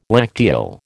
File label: "lacteal", American